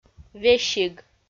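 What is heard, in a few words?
"весиг", Komi, female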